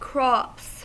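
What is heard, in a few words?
"crops", American English; pronunciations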